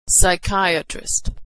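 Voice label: "psychiatrist", American English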